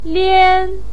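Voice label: "lian", Mandarin Chinese, first tone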